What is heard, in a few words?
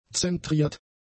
"zentriert", German; adjectives